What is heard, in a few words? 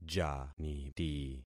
"janiti", concat syllables; Affixing experiment